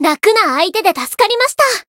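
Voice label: Voice win, Japanese; komachi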